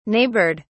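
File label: "neighboured", British English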